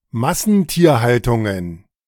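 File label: "Massentierhaltungen", German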